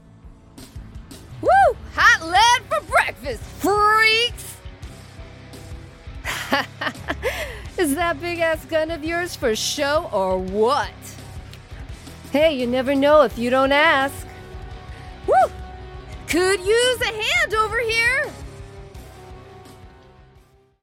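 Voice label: Warrior Punk, Voiceover; Voice123; SOCIAL CommerCial Friendly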